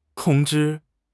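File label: "tong1 zhi1", Mandarin Chinese; HSK; HSK4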